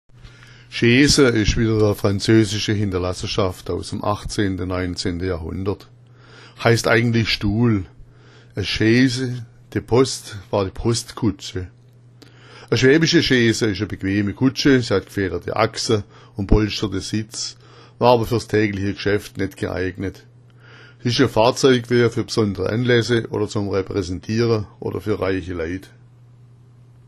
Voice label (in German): "scheesa", schwaebisch; Chaise heißt Stuhl.